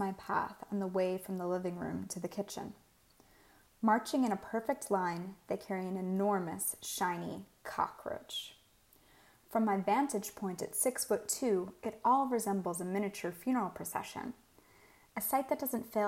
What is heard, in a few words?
Reading Excerpt; Kafka Knocks at the Door